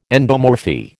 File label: "endomorphy", American